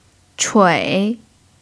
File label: "chui3", chinese